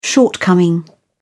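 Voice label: "shortcoming", British English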